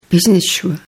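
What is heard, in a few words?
"halbschuhe", German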